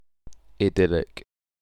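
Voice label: "idyllic", British English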